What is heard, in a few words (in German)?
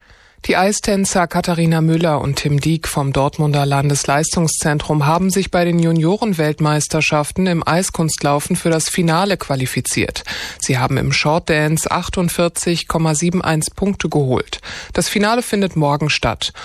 Nachrichten; Radio 912